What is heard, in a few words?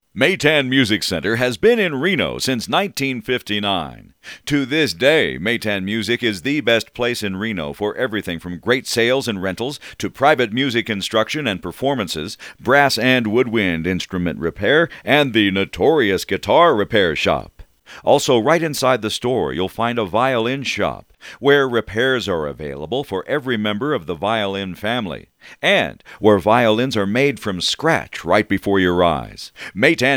Voiceover Radio Spots